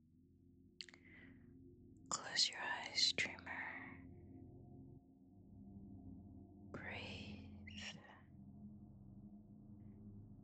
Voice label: Whisper ASMR; Objectification; Sweet Degradation; Submissive Training; Femdom; F4M; Girlfriend; Become My Perfect Footstool